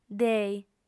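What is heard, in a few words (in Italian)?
"dei", lingua sarda, female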